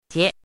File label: "jie5", Chinese